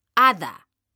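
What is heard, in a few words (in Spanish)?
"hada", Spanish, female; mekanta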